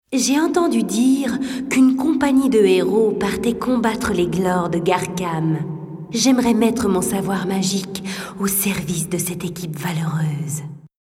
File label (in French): Reine des neiges, voix parlees, feerique et legendaire